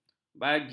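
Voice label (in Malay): "baji", Malay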